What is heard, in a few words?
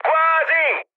bomb failure mood high, speech it; War Thunder